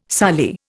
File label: "soli", Indian